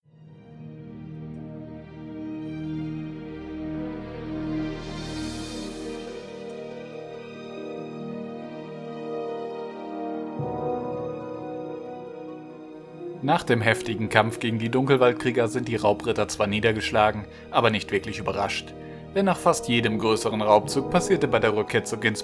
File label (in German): Erzaehler Ueberleitung